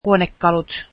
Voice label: finnish furniture

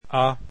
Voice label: esperanto alphabet a

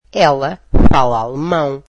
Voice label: portuguese she can speak German

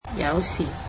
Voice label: "sometimes", Cantonese

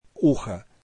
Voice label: russian ear

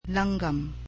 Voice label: "bird", cebuano

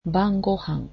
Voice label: "dinner", japanese

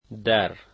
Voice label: in, Dari

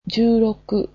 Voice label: "sixteen", japanese

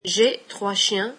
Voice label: french I have three dogs

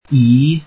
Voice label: welsh alphabet u

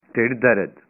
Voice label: stomach ache, punjabi

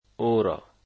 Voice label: "her" Dari

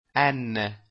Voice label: italian alphabet n

